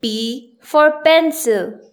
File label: p pencil, English; grade1; identifying letters sec